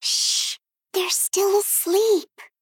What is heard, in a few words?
Female Gnome ERROR